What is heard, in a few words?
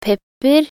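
"pepper", Norwegian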